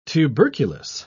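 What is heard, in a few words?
"tuberculous", British English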